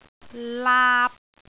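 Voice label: "laap3", Cantonese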